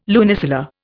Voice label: "lunisolar", Indian